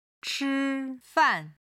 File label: "chi1fan4", Chinese